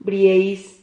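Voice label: "brieis", Spanish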